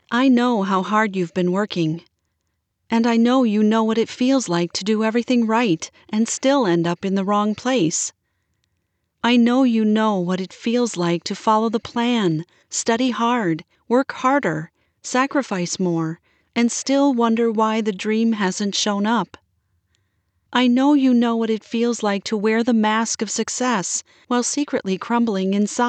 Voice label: audiobook sample